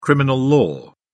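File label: "criminal law", British English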